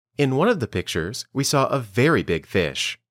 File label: Dictation